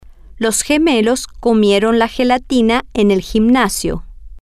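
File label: gemolos comieron la gelatina en, Spanish, female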